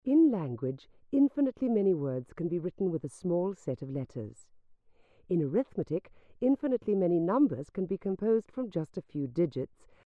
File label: moderate female voice, hearing loss